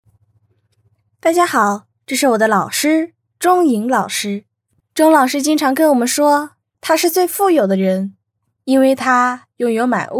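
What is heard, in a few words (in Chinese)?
女大音-学生（女童）; 真童配音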